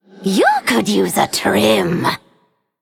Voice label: Undead Human; Emote Play